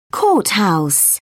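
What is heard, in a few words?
"courthouse", British English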